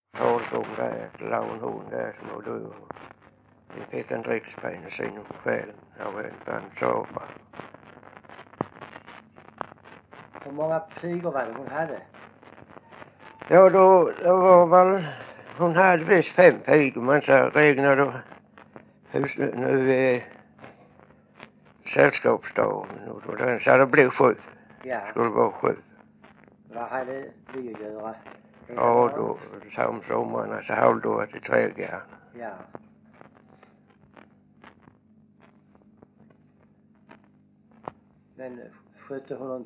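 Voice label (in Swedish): Vånga Skåne, dialekter; Folkminnen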